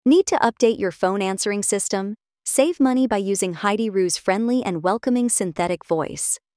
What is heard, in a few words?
IVR Female AI Generated Voice